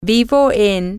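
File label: I live in, Spanish, female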